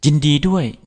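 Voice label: congratulation, Scottish Gaelic; gcompris-data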